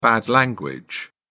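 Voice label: "bad language", British English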